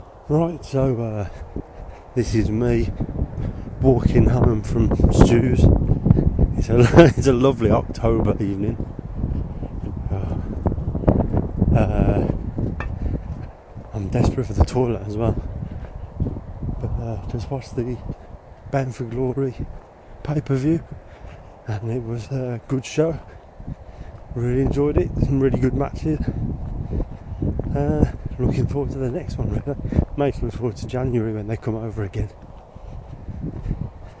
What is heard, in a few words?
inane babbling